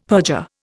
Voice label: "perjure", Indian; Dictionary

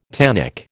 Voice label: "tannic", American

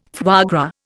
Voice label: "foie gras", Indian

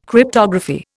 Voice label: "Cryptography", Indian

